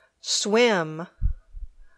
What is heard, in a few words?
"swim", English